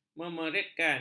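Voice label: "memeritkan", Malay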